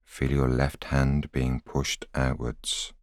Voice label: voiceover